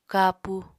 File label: "kapu", Apatani